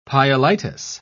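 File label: "pyelitis", British English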